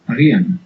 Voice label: "maybe", Basque

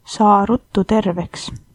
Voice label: get well soon, estonian; Saa ruttu terveks!

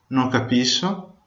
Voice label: no understand, venetian

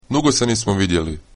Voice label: long time no see, croatian

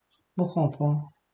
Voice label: understand, mauritian creole

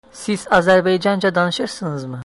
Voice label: do you speak, Azeri

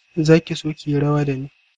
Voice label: dance, hausa

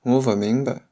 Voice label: no understand shanghainese

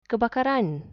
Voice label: fire, malay

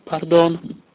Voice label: sorry, slovak

